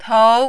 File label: "tou2", Mandarin